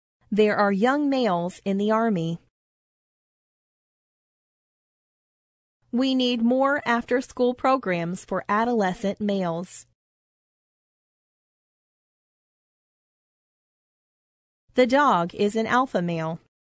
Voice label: male pause